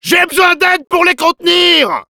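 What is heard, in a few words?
help me defend, French; Demoman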